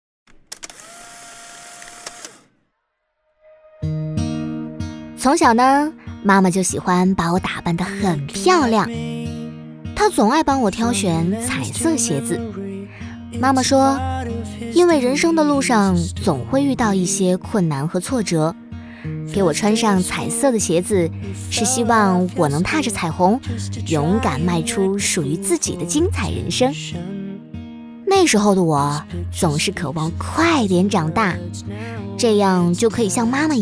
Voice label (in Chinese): 女-俏皮自述版; 彩色鞋子